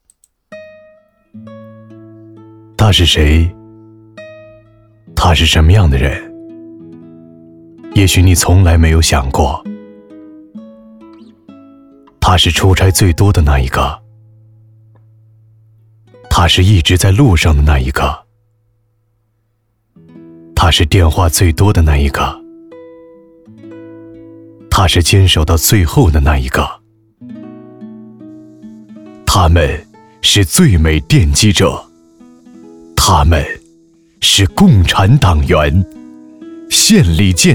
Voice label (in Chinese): 其他 微电影 独白 温暖, 男国; 他是谁独白温暖微电影